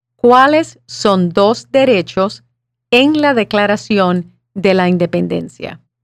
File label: Spanish